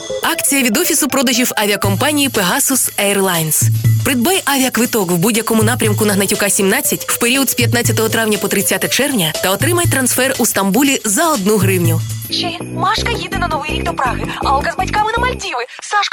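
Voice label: Ukrainian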